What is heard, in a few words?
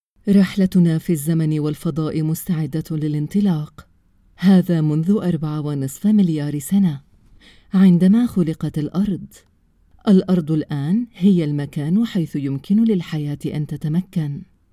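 Arabic, female; earth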